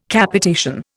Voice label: "Capitation", Indian

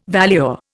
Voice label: "valuer", Indian

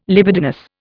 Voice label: "libidinous", Indian

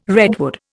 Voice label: "redwood", Indian

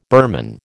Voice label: "Burman", American

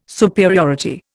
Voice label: "superiority", Indian

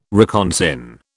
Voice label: "reconsign", British

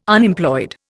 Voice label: "Unemployed", Indian

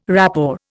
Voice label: "rapport", Indian

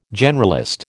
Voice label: "generalist", American

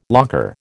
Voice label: "locker", American

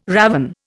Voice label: "ravin", Indian

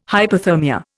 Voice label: "hyperthermia", Indian

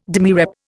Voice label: "demirep", Indian

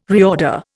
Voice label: "reorder", Indian

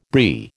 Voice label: "Brie", American

